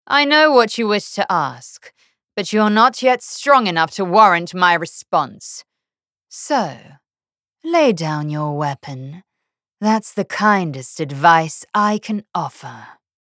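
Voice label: voice English gain; Sibylle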